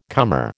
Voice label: "comer", American